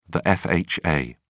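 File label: "fha", English, British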